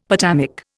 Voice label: "potamic", Indian; Dictionary